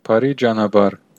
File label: bon voyage, armenian western